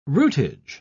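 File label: "rootage", English, British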